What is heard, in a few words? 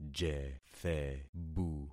"jethebu", concat syllables; Affixing experiment